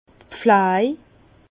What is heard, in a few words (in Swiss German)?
"pflaj", Bas Rhin; vin; soins